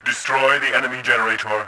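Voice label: attack generator, voice, Bot; Tribes2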